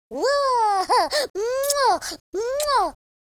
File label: happy